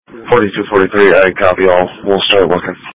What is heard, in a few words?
Sheriff Dispatch